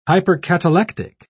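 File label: "hypercatalectic", British English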